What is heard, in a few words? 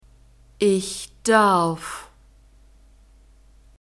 "ich darf", German